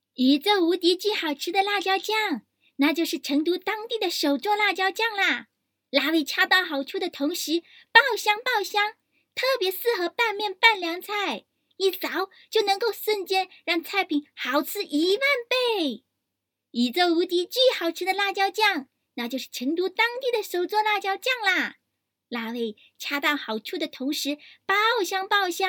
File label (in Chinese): 广西普通话